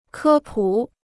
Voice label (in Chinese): "科普", Chinese